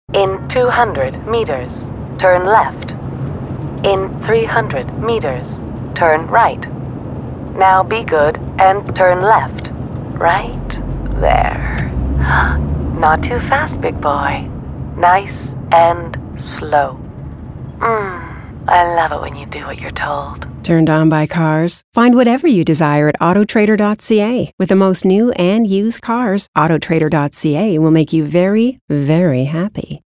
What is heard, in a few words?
Female GPS; autoTRADER